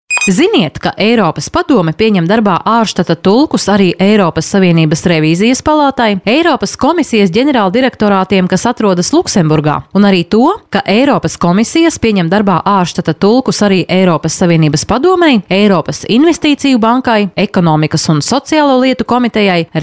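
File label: Latvian female commercial; voice demos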